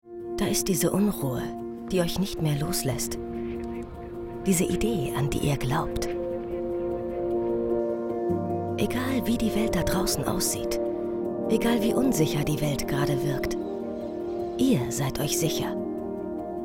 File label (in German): Imagefilm Kreditkarte Business